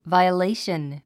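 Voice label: "violation", English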